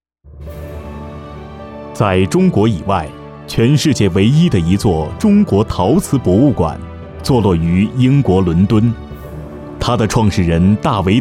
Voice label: 宣传片; 景德镇宣传片

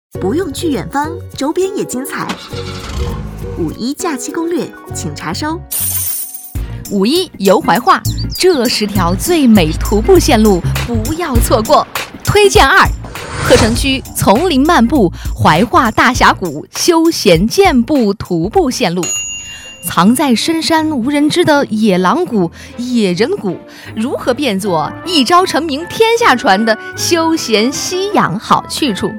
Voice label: 最美徒步线路, 新媒体配音, 普通话, 青年（18-35）; 怀化大峡谷; 真人商业配音 最美徒步线路2-怀化大峡谷

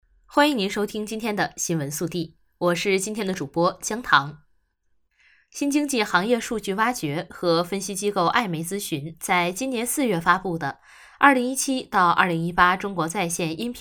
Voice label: 干练播报

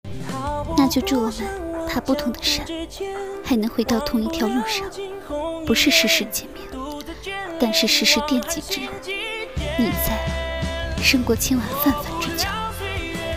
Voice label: 温御

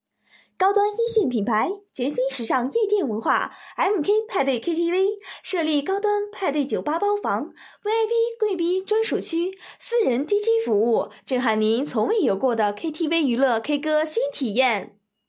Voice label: 广告配音宣传

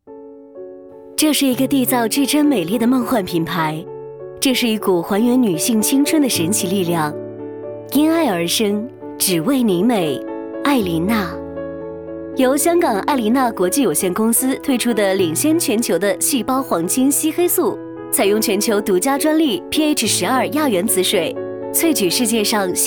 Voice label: 宣传片 化妆品

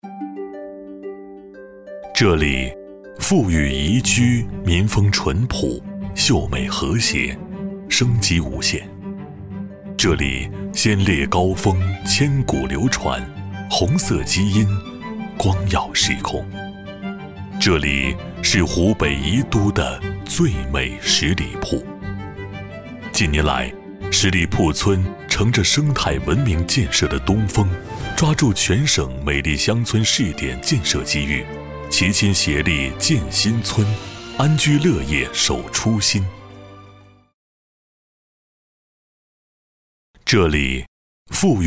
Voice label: 宣传片轻快怡人

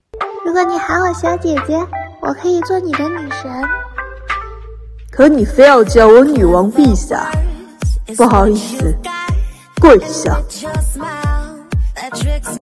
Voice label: 小姐姐和女王大人

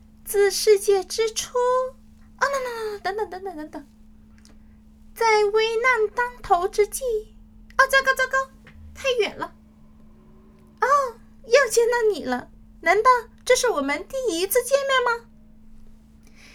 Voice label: 游戏萝莉音

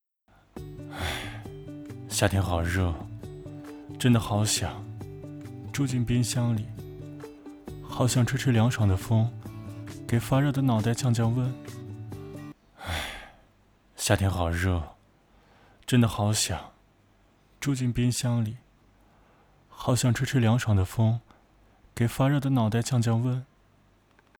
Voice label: 情景广告

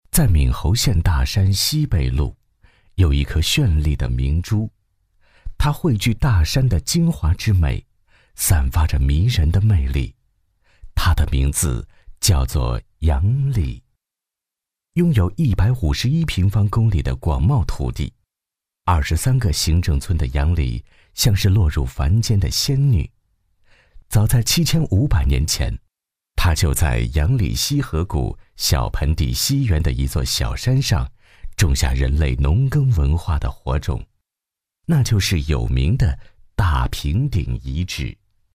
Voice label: 纪录片 娓娓道来

